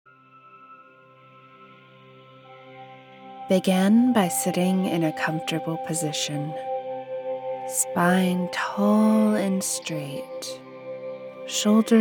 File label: Meditation